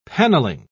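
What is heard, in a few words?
"paneling", British English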